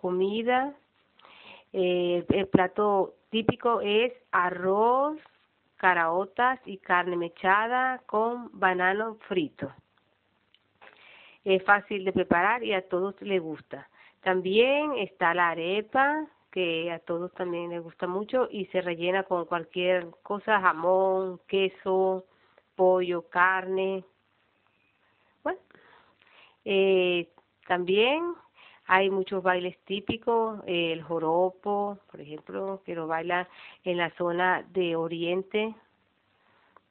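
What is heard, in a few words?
Venezuela Caracas Female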